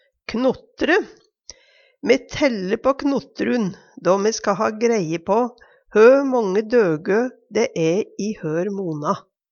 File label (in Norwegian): "knotre", Numedalsmål